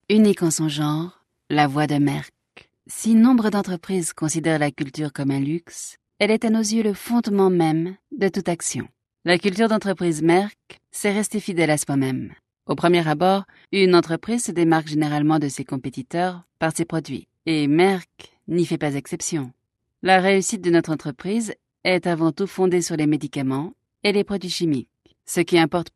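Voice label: French EU F Corporate, female; voice over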